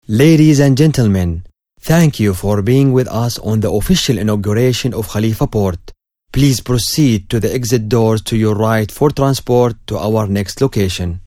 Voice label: To Thanks The Attendes, English; Khalifa Port